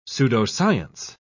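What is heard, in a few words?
"pseudoscience", British English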